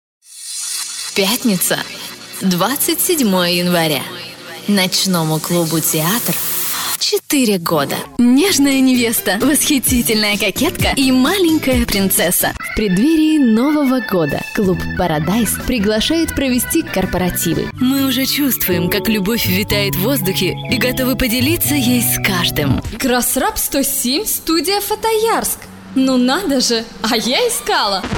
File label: rus